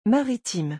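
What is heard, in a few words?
"maritime", French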